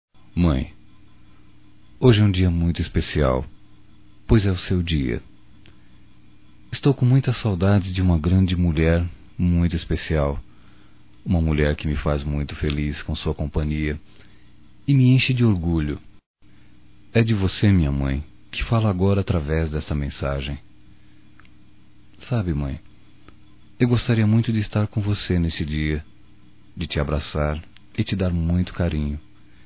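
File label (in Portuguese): DIA DAS MAES DISTANTE, masculino; Telemensagens 2017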